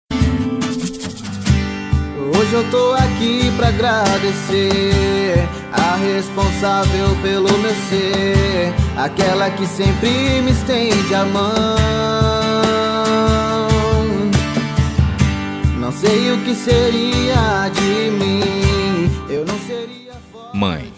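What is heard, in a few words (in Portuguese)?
DIA DAS MAES male, telemensagem; DIA DAS MAES SING MASC; telemensagem dia das maes filha e filho enviam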